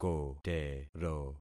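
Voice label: "kotero", concatenated syllables; Affixing experiment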